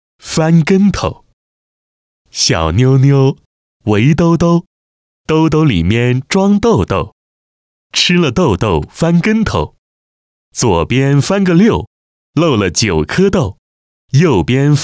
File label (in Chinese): 男,童谣,温和